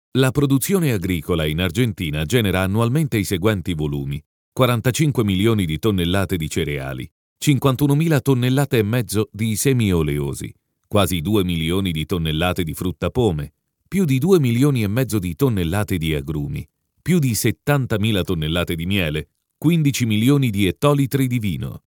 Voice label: Audition Corporate eLearning Italian